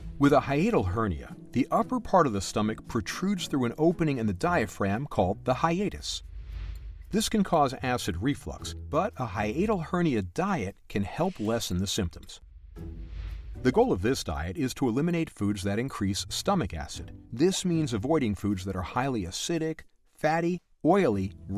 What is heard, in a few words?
Explainer eLearning; friendly, authoritative, intelligent, steady